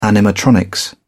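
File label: "animatronics", British English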